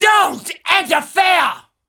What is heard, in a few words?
Female Taunt, Cannibal, dialogue